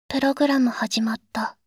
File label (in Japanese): ぷろぐらむ始まった…, システムボイス; Windows等向けシステムボイス